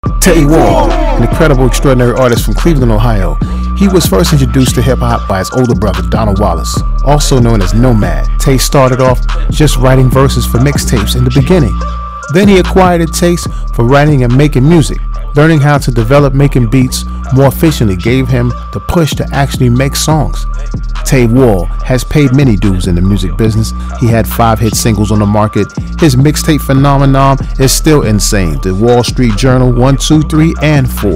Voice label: Scholarship Promo